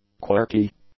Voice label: "quirky", English; adjectives